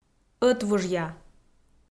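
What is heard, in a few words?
"ӧтвужъя", Komi, female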